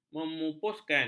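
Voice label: "memupuskan", Malay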